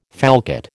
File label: "falcate", American